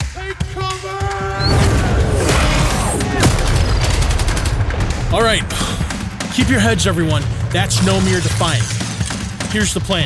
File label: Animation Reel